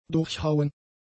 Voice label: "durchhauen", German; adjectives

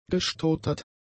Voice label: "gestootert", german; adjectives

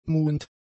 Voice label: "muhend", German; adjectives

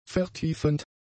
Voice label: "vertiefend", German; adjectives

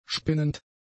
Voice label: "spinnend", german; adjectives

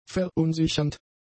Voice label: "verunsichernd", German; adjectives

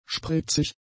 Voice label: "spritzig", German; adjectives

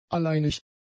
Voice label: "alleinig", German; adjectives

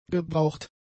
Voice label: "gebraucht", German; adjectives

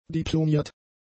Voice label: "diplomiert", German; adjectives

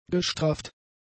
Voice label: "gestrafft", german; adjectives

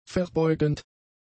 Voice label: "verbeugend", German; adjectives

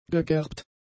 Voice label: "gegerbt", german; adjectives

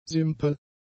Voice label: "simpel", German; adjectives

